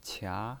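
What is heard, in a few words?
"qia" second tone, pinyin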